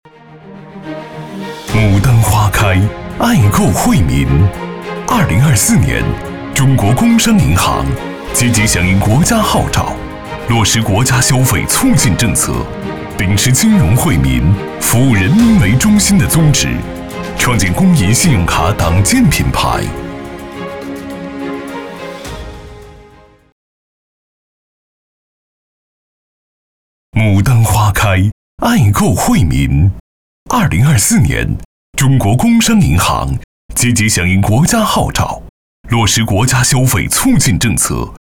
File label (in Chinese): 男, 银行宣传样音; 中国工商银行